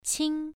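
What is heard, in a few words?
"qing", tone 1, pronunciation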